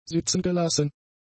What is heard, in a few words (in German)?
"sitzengelassen", german; adjectives